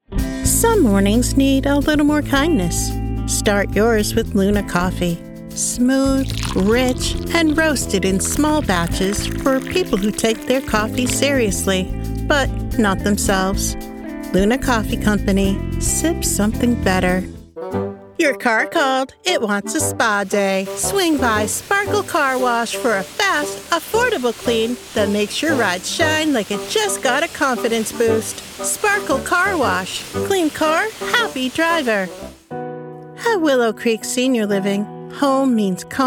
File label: Commercial